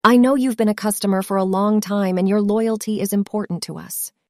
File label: synthesized audio